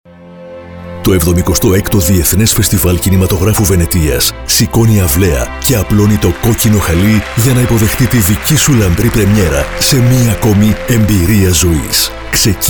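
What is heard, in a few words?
Greek, male, commercial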